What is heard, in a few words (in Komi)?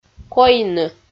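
"койны", Komi, female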